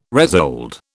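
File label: "resold", British English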